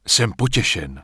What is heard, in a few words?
Human Male Official NPC Greeting